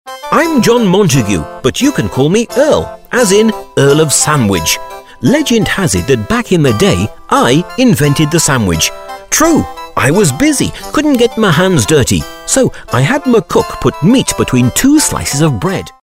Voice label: british storytellers oddball

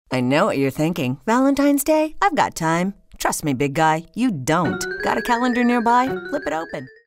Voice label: attitude

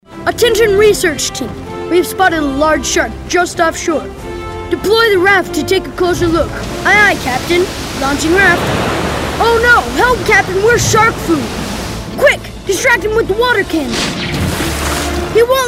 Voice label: Pirate Captain

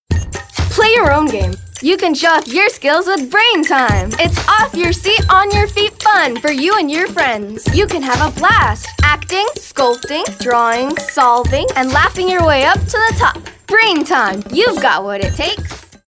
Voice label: perky

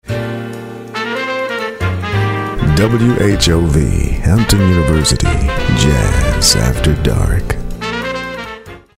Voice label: imaging

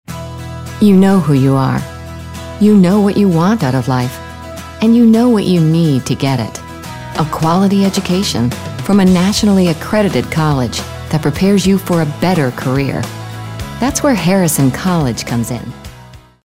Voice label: young

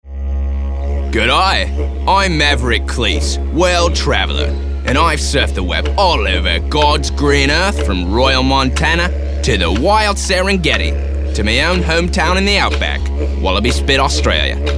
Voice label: Aussie Maverick

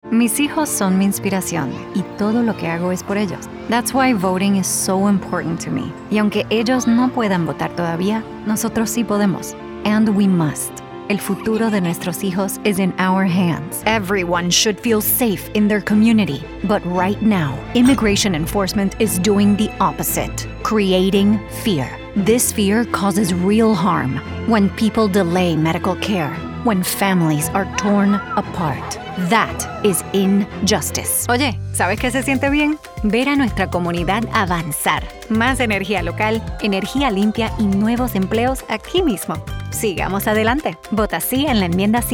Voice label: Political Bilingual

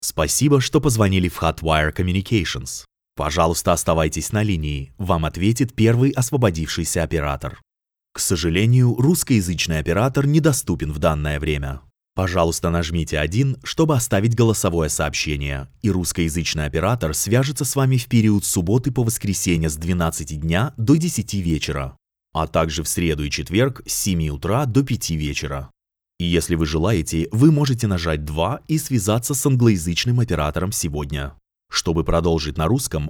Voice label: IVR, voicemail, phone systems

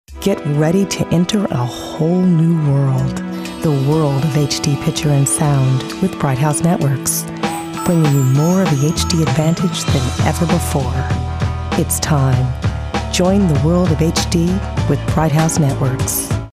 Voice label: sexy

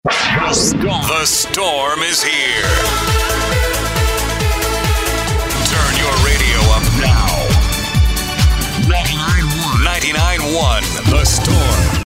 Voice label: imaging

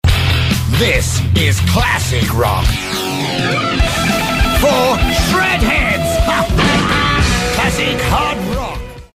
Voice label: british extreme gritty tough

